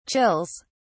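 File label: "chills", British English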